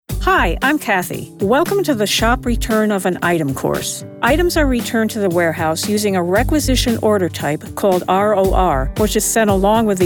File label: eLearning